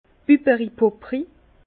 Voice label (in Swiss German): "bubberipupri", Bas Rhin; vin